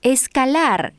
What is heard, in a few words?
Spanish climbing; samsung YP-U5; Audio User Interface